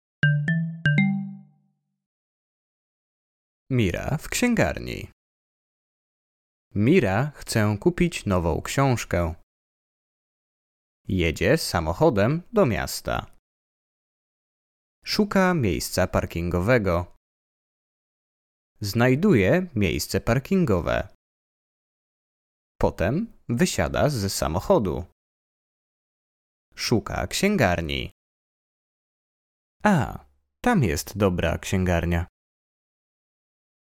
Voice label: Polish Easy Reader